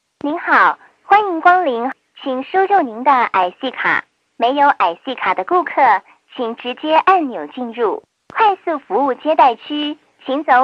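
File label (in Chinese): Parking cn, 停车场中文语音提示; 道闸入口语音提示; 自动化停车场、交通信号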